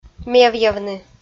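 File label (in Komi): "мевъявны", Komi-Zyrian, female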